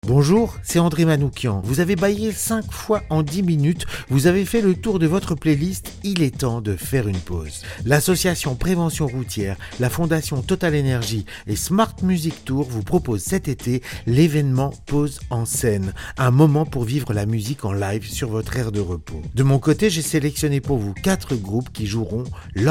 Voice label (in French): SPOT RADIO; Pause en scene